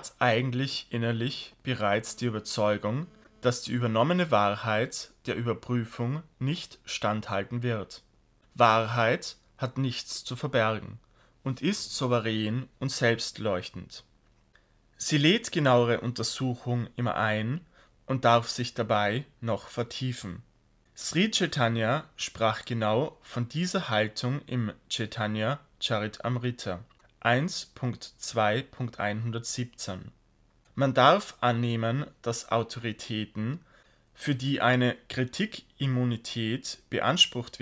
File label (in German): Einleitung kritischer Blick, audiobooks german